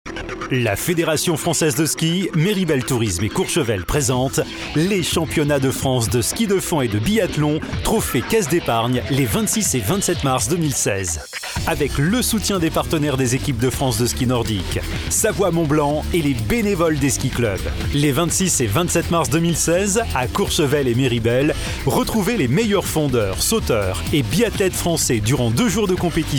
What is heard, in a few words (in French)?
PUB VOIX OFF